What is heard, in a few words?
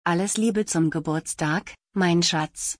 Happy birthday my love in German; تولدت مبارک به آلمانی